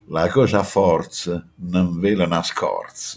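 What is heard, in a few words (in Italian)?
"scorza", Manfredonia dialect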